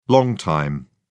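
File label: "long time", British English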